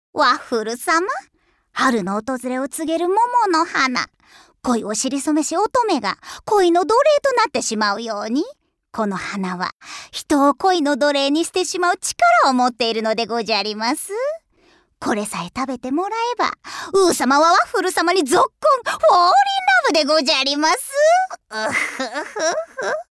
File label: oldwoman